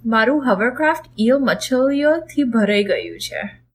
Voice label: hovercraft, gujarati